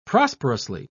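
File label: "prosperously", British English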